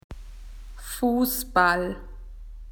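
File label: "to play football", Australian English; PictureDictionary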